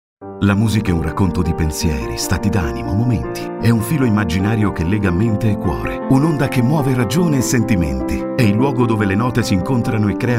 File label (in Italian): Spot